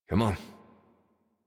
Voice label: Horse Start Adult; Warframe; Duviri

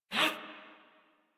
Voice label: Adult Operator Jump, en; Warframe; New War

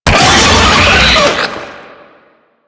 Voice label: Pain; Warframe; Proto Infested Brachiolyst

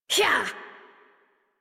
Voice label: Horse Sprint Adult; Warframe; Duviri

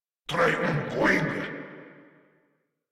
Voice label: Attack, Grineer Male

